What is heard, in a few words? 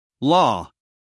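"law", American English, male; everyone-can-use-english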